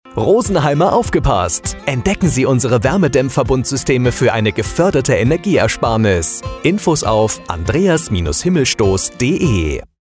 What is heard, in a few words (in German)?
Funkspot; Andreas Himmelstoss Maler Lackierer Meister